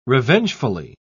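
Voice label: "revengefully", British English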